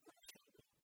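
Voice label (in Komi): кыв сеті, Komi, female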